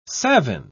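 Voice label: "savin", English, British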